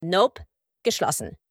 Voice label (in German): Nope geschlossen Lock Chime; Tesla